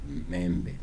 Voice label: "manioc piece", Kibembe

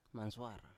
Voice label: "mansuar", Biak

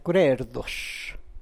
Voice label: This dog barks, San Lucas Quiaviní Zapotec; Becw re rduax.

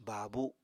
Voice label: "baabu", Apatani

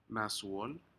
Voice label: "nasuol", Aneityum; shellfish

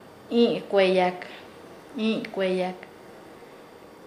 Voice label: "contigo", Nasa Yuwe; paez

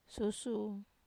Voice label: "susu", Wamesa

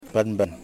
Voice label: "Bow", Yimas

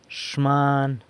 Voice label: "xman" week, San Lucas Quiavini

